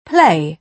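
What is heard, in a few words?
"play", British English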